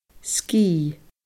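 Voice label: "sgìth", Scottish Gaelic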